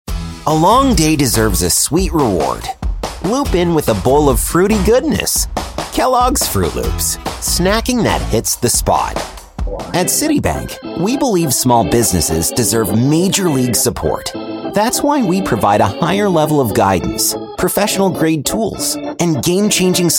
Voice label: commercial, Englisch - Nordamerika, Männlich Junger Erwachsene